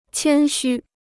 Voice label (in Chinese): "谦虚", Chinese